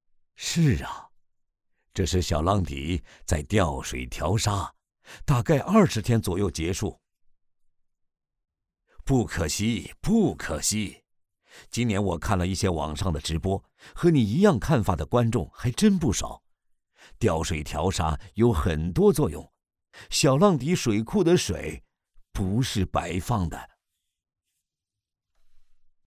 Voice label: 男, 角色 爷爷; 小浪底

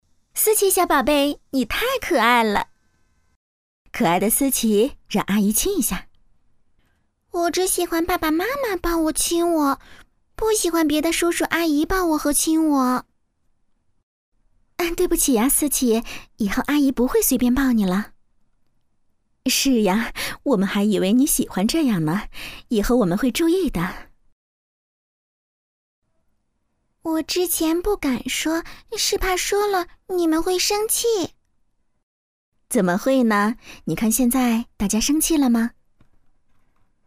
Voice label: 女-角色; 语言表达能力训练

